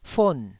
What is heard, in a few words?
"fon4", taiwanese syllables